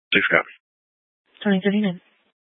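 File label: Fire Dispatch, scanner audio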